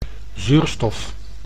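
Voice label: "zuurstof", Dutch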